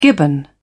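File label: "gibbon", British English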